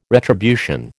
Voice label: "retribution", American